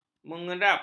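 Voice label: "menyerap", Malay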